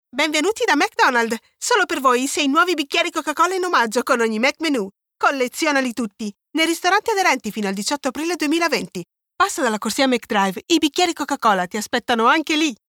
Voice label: female italian comercial; ideasonora

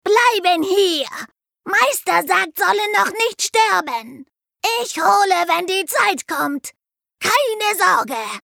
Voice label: female german commercial; ideasonora

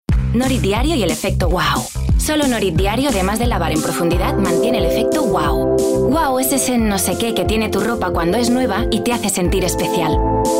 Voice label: female spanish detergent comercial; ideasonora

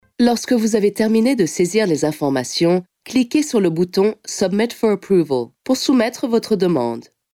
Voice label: female french explicative comercial; ideasonora

